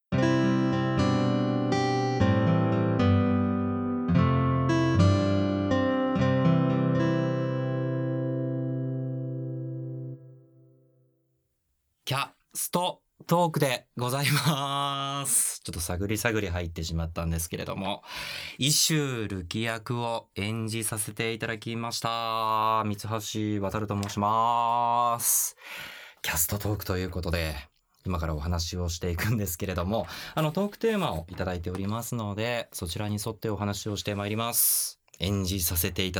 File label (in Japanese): フリートーク